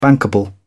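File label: "bankable", British English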